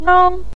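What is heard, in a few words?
"nang" neutral tone, Mandarin Chinese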